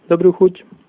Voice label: bon appetit, slovak